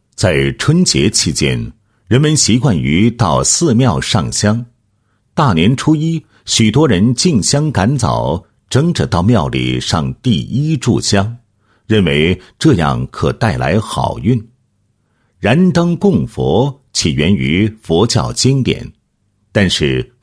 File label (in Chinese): 男 宗教 佛教; 供头灯的功德利益